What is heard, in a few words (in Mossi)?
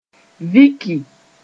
"viki", moore